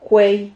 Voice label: "juey", Silabas

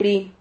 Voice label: "pri", Silabas

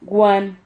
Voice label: "guan", Silabas

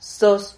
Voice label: "soz", Silabas

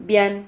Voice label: "vian", Silabas

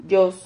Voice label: "yos", Silabas

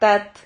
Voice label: "tat", Silabas

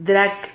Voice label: "drac", Silabas

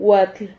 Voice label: "huatl", Silabas

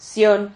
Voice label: "sion", Silabas

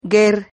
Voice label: "guer", Silabas

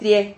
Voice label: "trie", Silabas

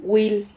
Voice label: "huil", Silabas

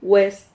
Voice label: "hues", Silabas

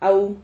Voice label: "hau", Silabas

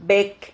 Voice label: "vec", Silabas

